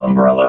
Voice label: "umbrella", robot